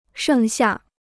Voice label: "剩下", Chinese

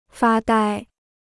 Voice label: "发呆", Chinese

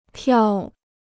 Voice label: "票", Chinese

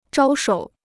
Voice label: "招手", Chinese

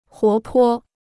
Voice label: "活泼", Chinese

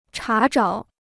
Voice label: "查找", Chinese

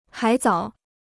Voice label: "海藻", Chinese

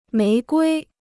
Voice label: "玫瑰", Chinese

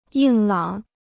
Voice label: "硬朗", Chinese

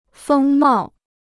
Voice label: "风貌", Chinese

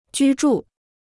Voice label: "居住", Chinese

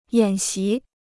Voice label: "演习", Chinese

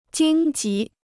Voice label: "荆棘", Chinese

